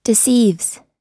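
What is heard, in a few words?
"deceives", US English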